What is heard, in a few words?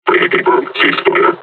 taunt, combine charger; Half Life Alyx